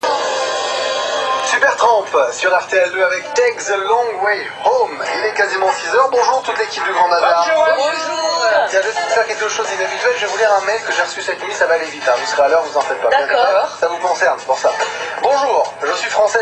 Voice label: radio; singapour; rtl2